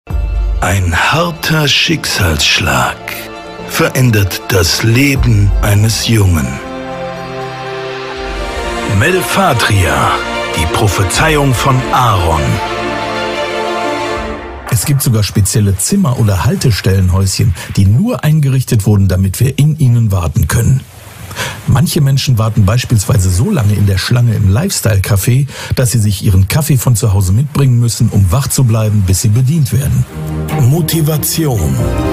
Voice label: corporate